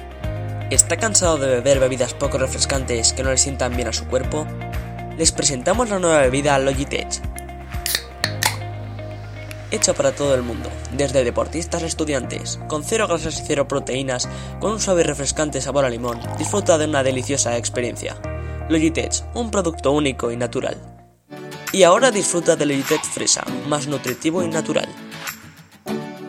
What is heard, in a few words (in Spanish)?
Anuncio